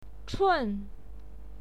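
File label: "chun", Mandarin Chinese, fourth tone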